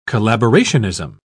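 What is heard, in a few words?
"collaborationism", British English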